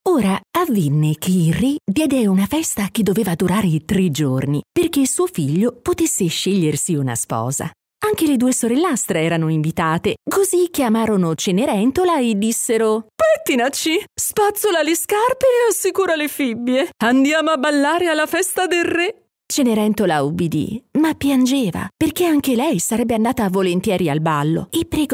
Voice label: audiolibri bambini

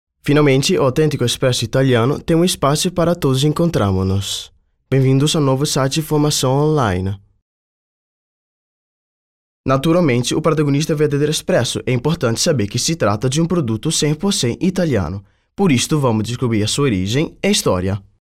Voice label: lingua portoghese uomo

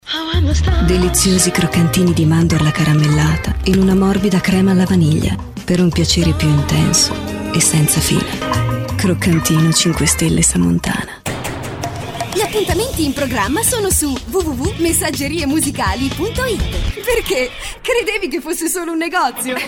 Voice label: spot nazionali

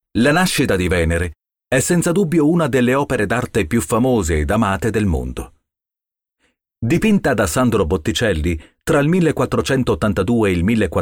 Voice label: audioguide

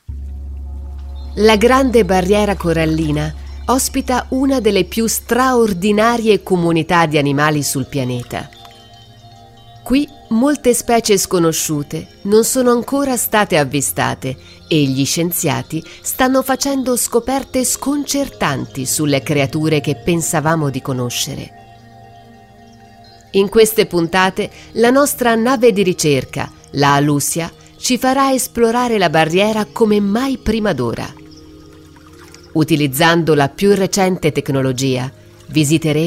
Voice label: documentari

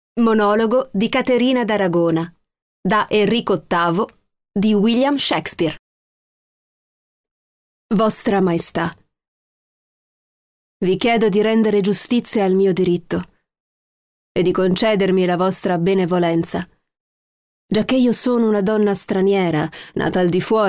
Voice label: audiolibri recitato

